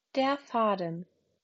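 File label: "Faden", German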